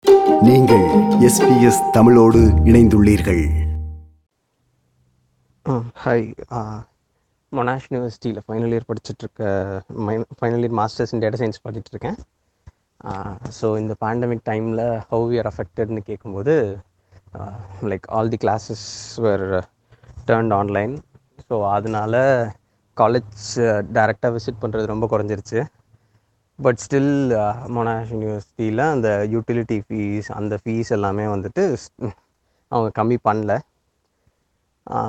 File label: tamil; SBS தமிழ்